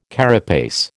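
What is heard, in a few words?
"carapace", American English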